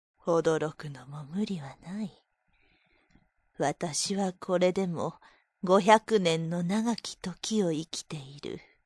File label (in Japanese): 落ち着いた老女風; Asterisk in the Mystic; エルフ; 「驚くのも無理はない、私はこれでも500年の長き時を生きている